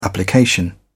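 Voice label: "application", British English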